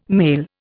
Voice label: "male", Indian

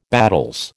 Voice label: "battels", American

